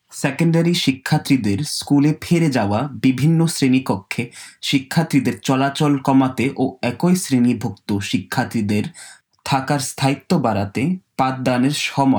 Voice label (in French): Secondaire Bangla